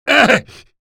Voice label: monk pain; ravenholm; hl2mp